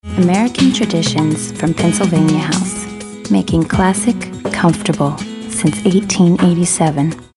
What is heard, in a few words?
soft spoken